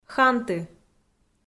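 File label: "ханты", Komi, female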